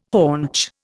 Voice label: "haunch", Indian